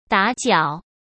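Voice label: "打搅", Mandarin Chinese; hsk; chinese flashcards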